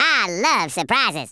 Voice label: "orders", Redneck